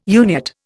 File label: "Uniat", Indian